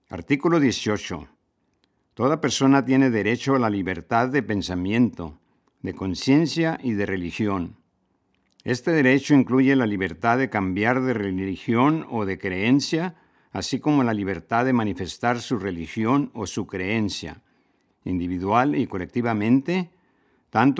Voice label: Spanish